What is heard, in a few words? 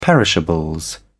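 "perishables", British English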